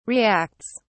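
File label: "reacts", British English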